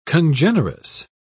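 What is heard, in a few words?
"congenerous", British English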